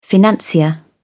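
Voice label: "financier", British English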